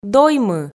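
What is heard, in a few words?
"доймы", Komi, female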